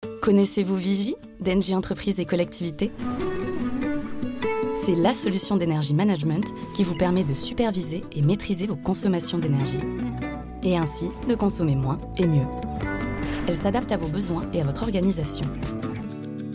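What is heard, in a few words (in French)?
VOIX OFF - Pub, comédienne; OSCARO - Change de disque (2025)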